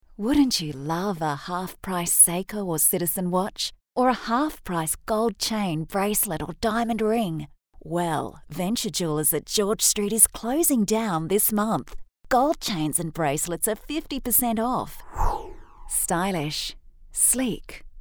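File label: Warm Friendly, female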